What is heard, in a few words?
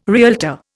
"realtor", Indian